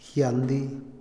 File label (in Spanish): ver, Otomí, hombre; Percepción; INALI